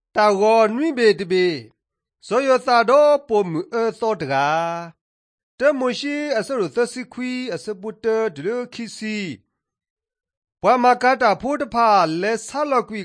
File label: drama, karen sgaw; mighty men of god; bible